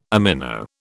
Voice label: "amino", British

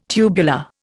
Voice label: "tubular", Indian

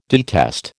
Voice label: "detest", American

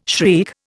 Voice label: "shriek", Indian

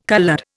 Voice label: "coloured", Indian

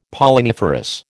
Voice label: "Polliniferous", American

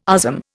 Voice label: "USM", Indian

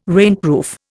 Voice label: "rainproof", Indian

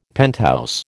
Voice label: "penthouse", American

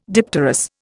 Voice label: "dipterous", Indian

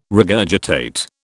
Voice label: "regurgitate", British

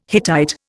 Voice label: "Hittite", Indian

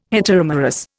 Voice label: "heteromerous", Indian

